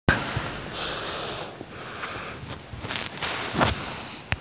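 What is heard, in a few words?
"zi4you2", Mandarin Chinese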